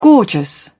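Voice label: "gorgeous", English, British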